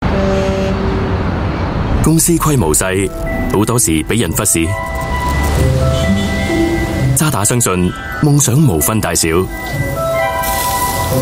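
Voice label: Male Cantonese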